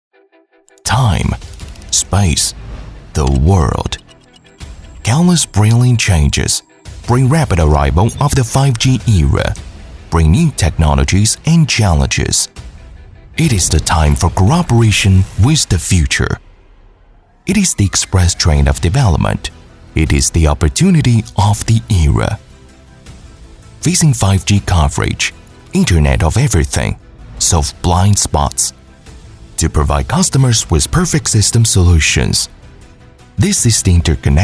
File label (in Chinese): 英文专题震撼科技类